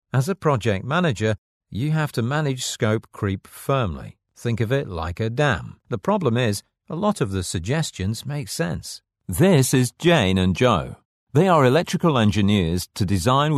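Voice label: English, British, male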